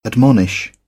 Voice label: "admonish", British; ɑː - ɒ; English